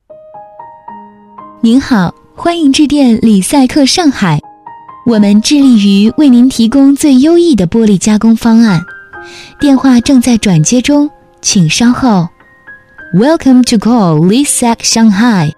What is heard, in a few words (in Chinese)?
彩铃 女 温柔; 玻璃加工; 李赛克玻璃加工女45